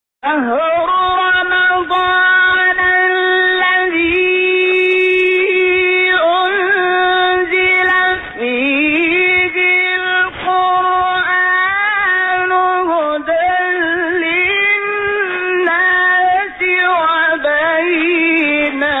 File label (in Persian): فرازی زیبا از تلاوت سوره بقره; شحات محمد انور